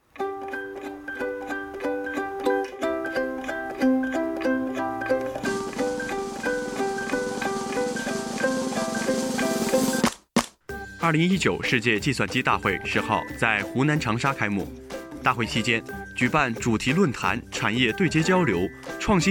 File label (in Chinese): 新闻配音